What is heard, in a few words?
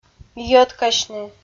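"йӧткасьны", Komi, female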